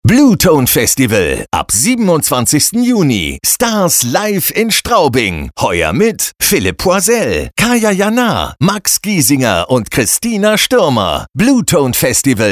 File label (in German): Werbung; Bluetone 2017